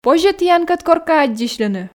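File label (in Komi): позьӧ тіянкӧд коркӧ аддзысьлыны, Komi, female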